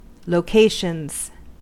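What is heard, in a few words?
"locations", US English; pronunciations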